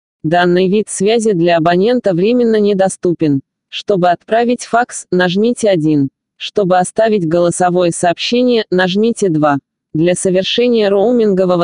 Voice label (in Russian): меню рус